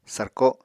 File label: "sarko", Apatani; [sarkoɁ] v. rip open; tear open